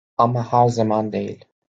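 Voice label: "ama her zaman degil", Turkish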